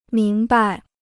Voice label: "明白", Chinese

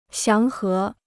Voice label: "祥和", Chinese

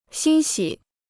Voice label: "欣喜", Chinese

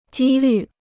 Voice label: "几率", Chinese

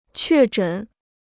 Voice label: "确诊", Chinese

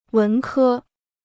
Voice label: "文科", Chinese

